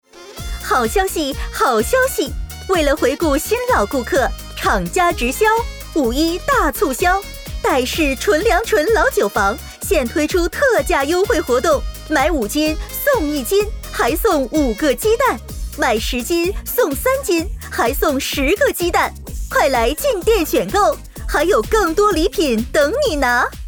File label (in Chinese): 促销甜美激情